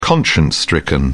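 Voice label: "conscience stricken", British English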